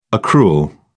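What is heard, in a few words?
"accrual", British English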